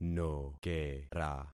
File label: "nokera", concat syllables; Affixing experiment